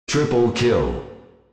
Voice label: "Triple Kill", Announcer; RizzeBattleRoyale; TPSBR